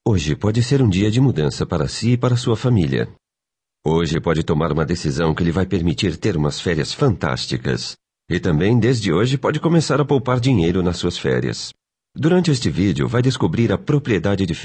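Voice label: Portuguese male voice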